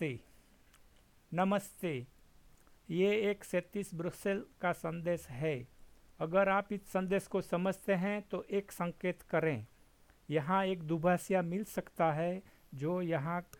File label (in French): Hindi